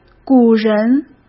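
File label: "古人", Mandarin Chinese; chinese-flashcards; hsk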